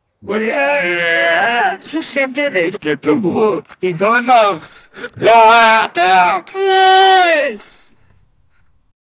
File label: sport commentator saying